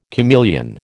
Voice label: "chameleon", American

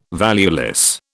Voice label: "valueless", British

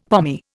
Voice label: "pommy", Indian; Dictionary

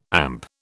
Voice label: "AMP", British

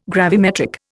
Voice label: "gravimetric", Indian

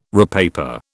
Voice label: "repaper", British

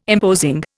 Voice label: "imposing", Indian

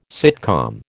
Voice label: "sitcom", American

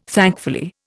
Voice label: "thankfully", Indian

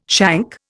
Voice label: "shank", Indian

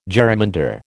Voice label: "jerrymander", American

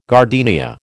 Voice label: "gardenia", American

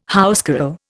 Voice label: "housecarl", Indian

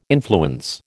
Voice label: "influence", American

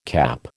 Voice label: "CAP", American

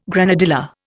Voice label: "granadilla", Indian

